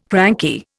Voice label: "cranky", Indian